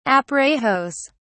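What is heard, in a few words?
"aparejos", British English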